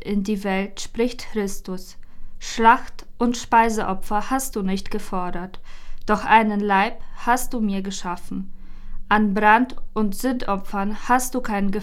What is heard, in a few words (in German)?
Lesung; 4 Adventssonntag